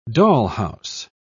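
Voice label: "dollhouse", British English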